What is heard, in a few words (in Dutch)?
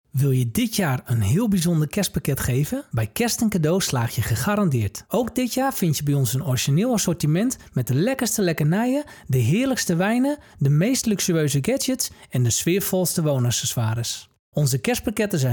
commercial tekst